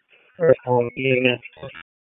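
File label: EMS DISP, radio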